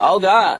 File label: "oh god", male; Voice